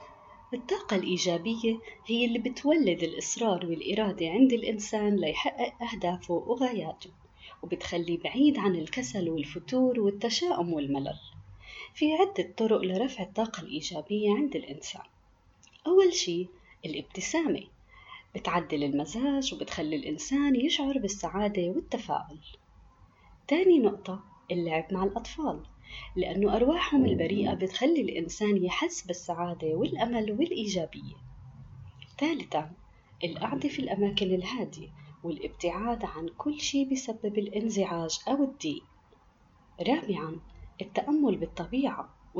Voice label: Arabic; Palastene